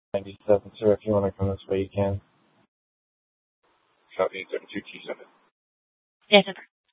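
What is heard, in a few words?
Police Department Dispatch, scanner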